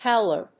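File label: "howler", English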